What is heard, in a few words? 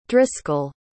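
"driscoll", English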